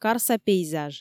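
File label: "карса пейзаж", Komi, female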